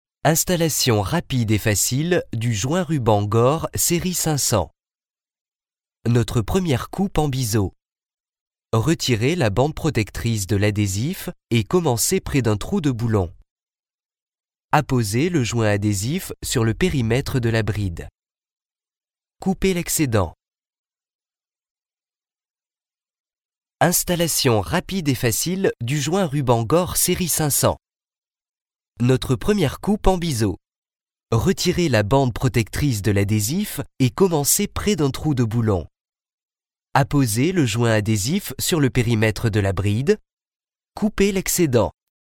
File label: french male